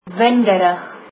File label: windy, afrikaans